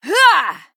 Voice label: Female Night Elf Attack

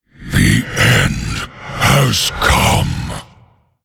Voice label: Male Human Attack

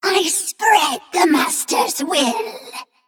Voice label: Female Nerubian Play

